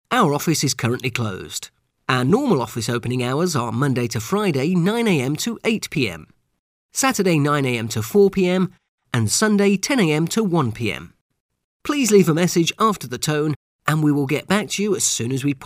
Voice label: on hold